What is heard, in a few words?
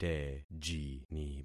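"tejini", concatenated syllables; Affixing experiment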